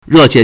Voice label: "re4 qie4", Chinese